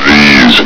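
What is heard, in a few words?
"these", male; hl2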